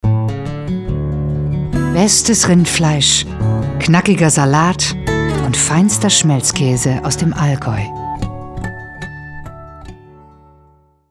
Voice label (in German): werbung german